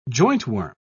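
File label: "jointworm", British English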